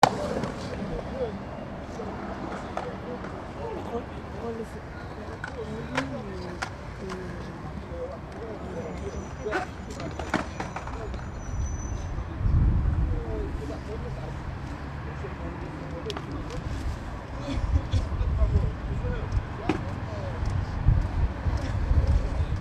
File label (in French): conversation